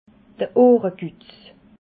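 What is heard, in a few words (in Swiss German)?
"ohrekutz", Alsatian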